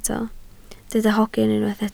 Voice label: "hogyn", Welsh